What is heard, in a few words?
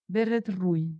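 "berretroi", Occitan Gascon; Prêts pour l’Òc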